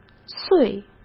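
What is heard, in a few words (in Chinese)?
"碎", Mandarin Chinese; hsk; chinese-flashcards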